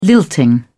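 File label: "lilting", British English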